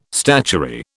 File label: "statuary", British